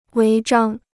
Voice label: "违章", Chinese

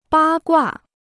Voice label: "八卦", Chinese

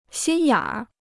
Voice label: "心眼儿", Chinese

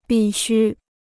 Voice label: "必需", Chinese

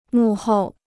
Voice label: "幕后", Chinese

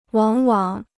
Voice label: "往往", Chinese

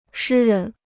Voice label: "诗人", Chinese

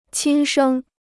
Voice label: "亲生", Chinese

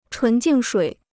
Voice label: "纯净水", Chinese

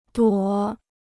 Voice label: "朵", Chinese

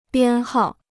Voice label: "编号", Chinese

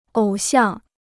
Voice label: "偶像", Chinese

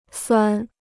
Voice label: "酸", Chinese

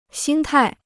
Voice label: "心态", Chinese

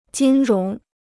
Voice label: "金融", Chinese

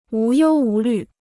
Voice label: "无忧无虑", Chinese

